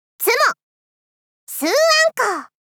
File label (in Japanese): ツモ！！ 四暗刻！（スーアンコー） 少女×中音域